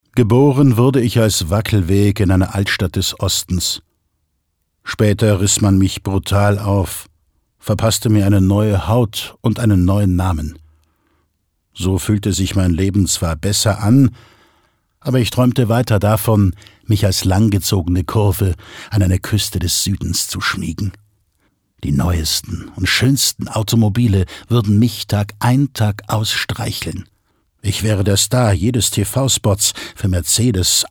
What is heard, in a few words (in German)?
Schauspiel, Neutral